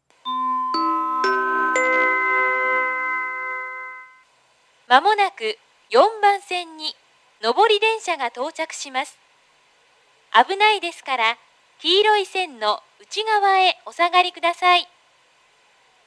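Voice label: platform 3 approaching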